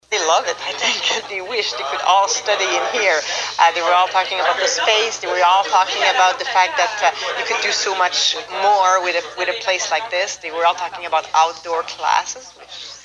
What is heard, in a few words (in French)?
fr pub